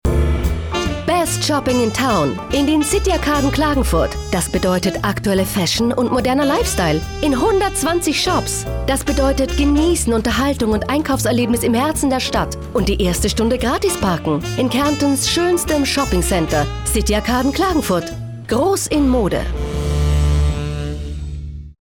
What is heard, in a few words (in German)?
Werbung DE